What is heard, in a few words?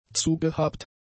"zugehabt", German; adjectives